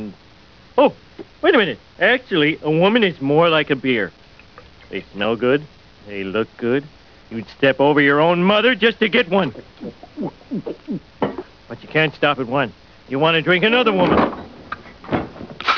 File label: woman